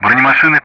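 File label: Speech Sound Russian; DCS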